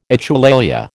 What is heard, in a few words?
"echolalia", American